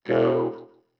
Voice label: 120 Neo-Wave Vocoder Go; Pop Rock